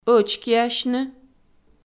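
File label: "ӧчкиасьны", Komi, female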